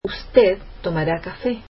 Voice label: spanish you will drink coffee

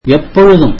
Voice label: tamil always

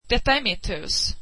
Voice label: this is my house, swedish

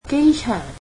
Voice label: "airport", cantonese

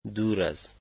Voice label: "far from", Dari

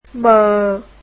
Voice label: "m", vietnamese alphabet

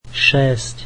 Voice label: slovak six

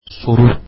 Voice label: question, turkish

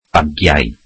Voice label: welsh luggage

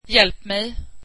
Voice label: swedish help me

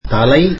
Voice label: tamil head

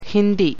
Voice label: malayalam

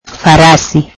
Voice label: Swahili horses

